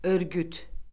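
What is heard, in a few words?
"örgüt", Azerbaijani; Orfoqrafiya Lüğəti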